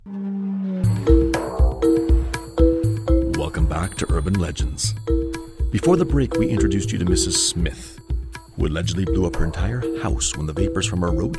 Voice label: Narration